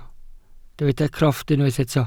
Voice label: "crofft", welsh

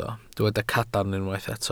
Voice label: "cadarn", Welsh

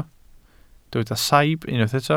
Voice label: "saib", Welsh

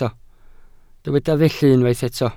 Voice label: "felly", Welsh

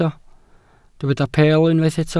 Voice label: "peel", Welsh

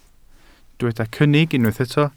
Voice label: "cynnig", welsh

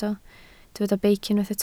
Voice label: "beic", Welsh

